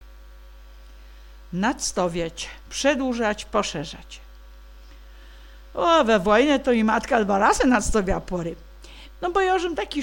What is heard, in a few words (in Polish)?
nadstowiac, gwarowy